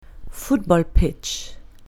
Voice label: "football pitch", English; colour english; places at school

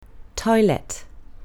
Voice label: "toilet", English; colour english; places at school

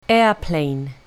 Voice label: "airplane", English; colour english; means of transport

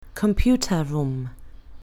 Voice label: "computer room", English; colour english; places at school

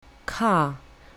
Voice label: "car", English; colour english; means of transport

